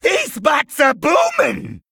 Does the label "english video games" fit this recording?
No